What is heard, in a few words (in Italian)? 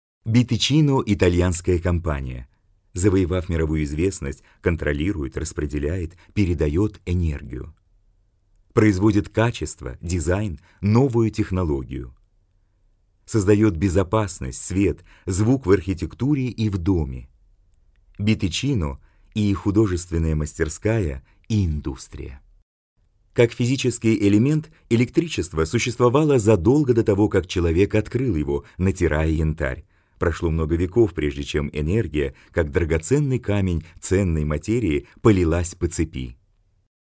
Lingua russa; BTicino